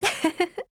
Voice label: MOOD JP

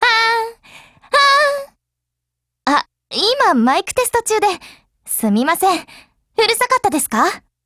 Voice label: DIALOGUE JP; Spitfire

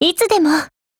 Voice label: PHRASE JP

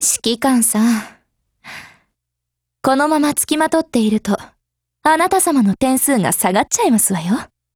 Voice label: DIALOGUE JP

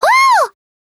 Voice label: MOOD JP

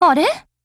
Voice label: MOOD JP

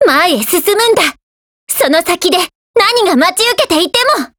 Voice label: GO ATTACK JP

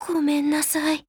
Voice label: LOW MOOD JP

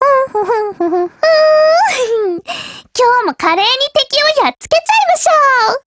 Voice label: LOADING, JP

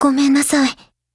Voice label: LOWMOOD JP; Ballista

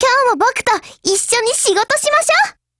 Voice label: HELLO JP

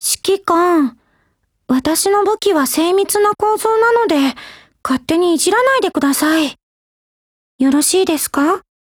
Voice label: DIALOGUE JP; PSG1

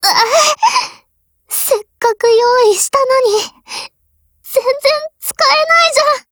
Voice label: BREAK, JP

